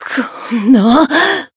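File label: damaged, Japanese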